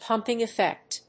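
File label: "pumping effect", English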